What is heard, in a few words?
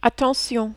"attention", French